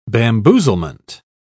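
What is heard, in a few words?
"bamboozlement", British English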